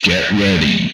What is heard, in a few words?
"Get Ready", retro arcade speech